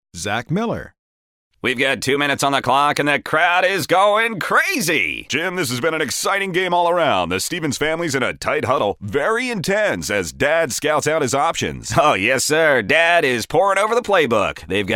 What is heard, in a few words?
sports announcer